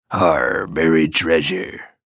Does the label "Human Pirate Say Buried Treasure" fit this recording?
Yes